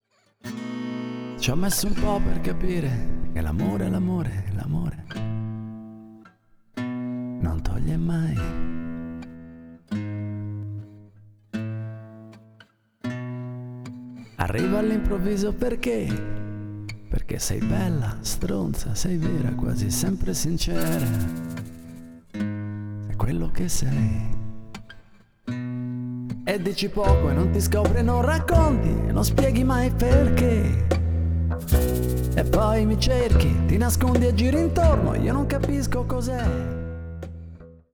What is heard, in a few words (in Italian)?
Voce Maschile